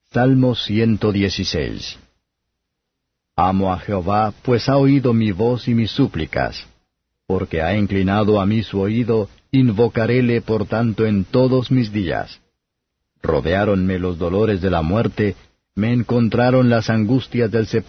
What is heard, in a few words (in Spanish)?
salmos spanish